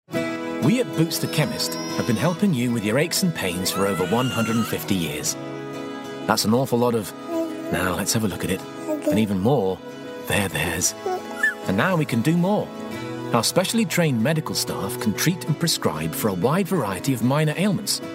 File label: commercials